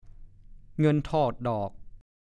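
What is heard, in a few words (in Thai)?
"เงินทอดดอก" ภาษาโคราช; เงินตกดอก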